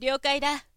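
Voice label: "ryoukaida", Japanese, woman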